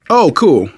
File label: reaction positive, manager, survivor, voice; Left 4 Dead 2; left4dead2 dlc2